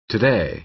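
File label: "today", American English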